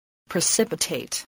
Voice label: "precipitate", voice, spelling